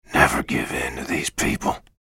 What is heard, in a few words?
chatter negative; respawn; bjblazkowicz